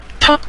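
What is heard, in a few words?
"cha", Mandarin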